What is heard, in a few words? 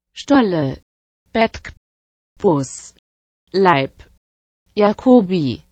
German